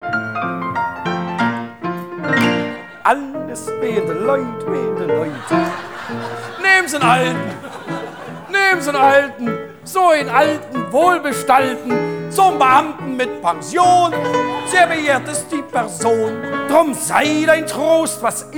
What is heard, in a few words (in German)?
Werbung